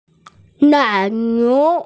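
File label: "dairy cow", Mandarin, hearing-impaired; nai3niu2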